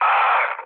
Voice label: damaged pilot, mood high, speech tur; War Thunder